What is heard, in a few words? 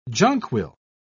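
"jonquil", British English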